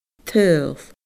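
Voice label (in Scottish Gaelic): "tadhal", Scottish Gaelic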